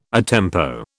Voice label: "a tempo", British